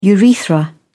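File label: "urethra", British English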